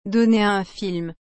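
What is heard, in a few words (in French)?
"donner un film", French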